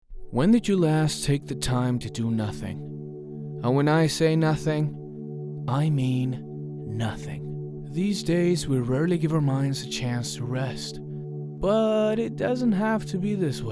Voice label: Commercial; headspace

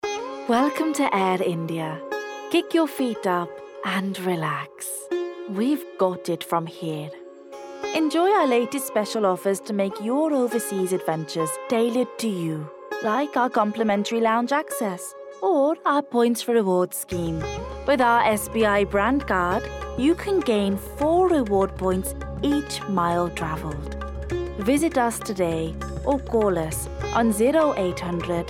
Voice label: Commercial; Air India

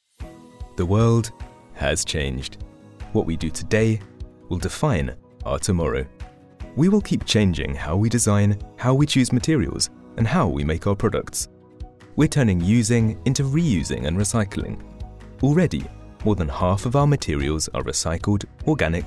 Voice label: Corporate

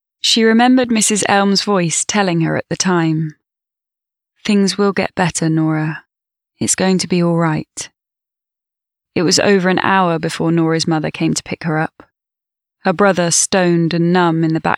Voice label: English Audiobook

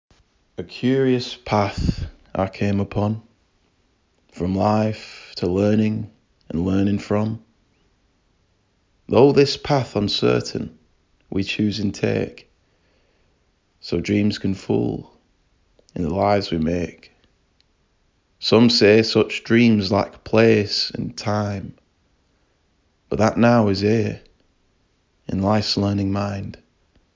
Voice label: Northern

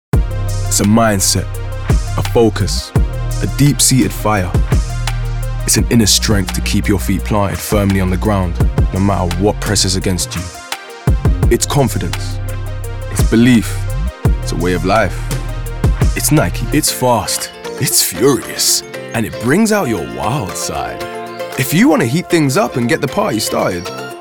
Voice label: Commercial

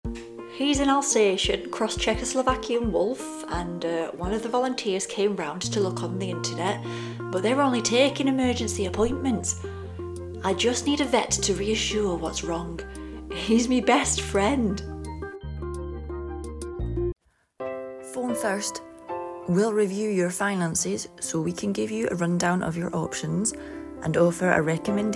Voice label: Regional Accents

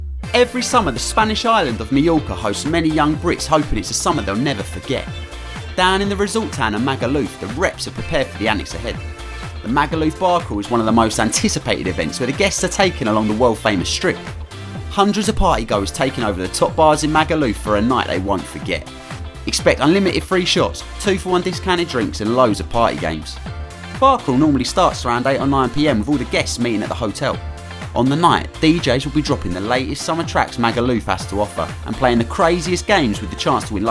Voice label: Narration

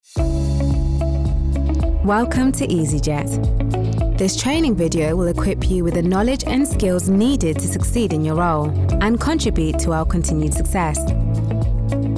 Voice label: Promo

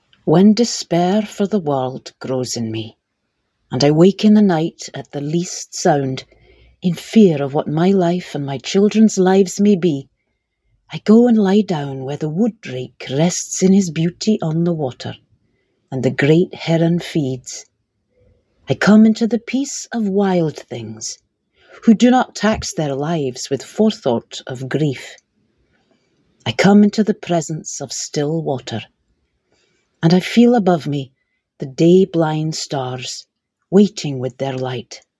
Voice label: Scottish

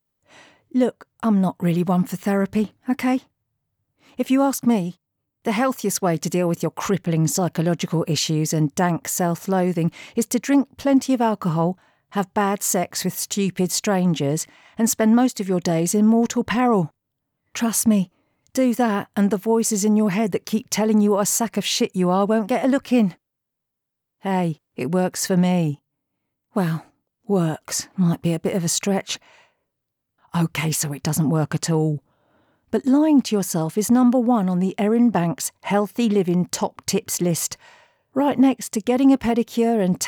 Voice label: Audiobook

